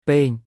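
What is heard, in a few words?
"បេង", Khmer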